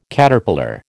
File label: "Caterpillar", American